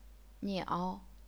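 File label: "niao", third tone, chinese pinyin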